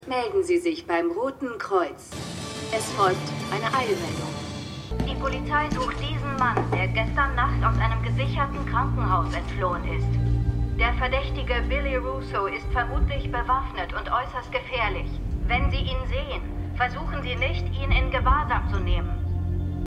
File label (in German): Werbesprecherin TV Sprecherin Nachrichtensprecherin; Marvel's The Punisher